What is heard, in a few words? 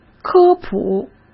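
"科普", Mandarin Chinese; chinese-flashcards; hsk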